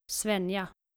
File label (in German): "swenja", skandinavische maedchennamen